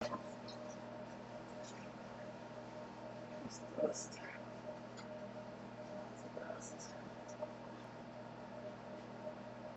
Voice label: foreign voice